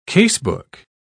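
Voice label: "casebook", British English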